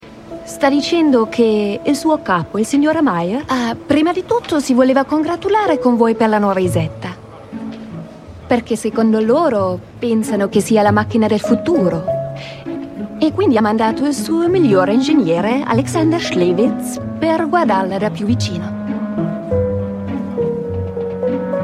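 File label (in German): Italian, Fremdtake Freundlich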